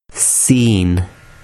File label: "seen", Urdu